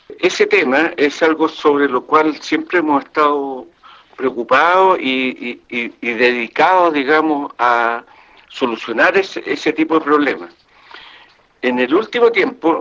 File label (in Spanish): cuña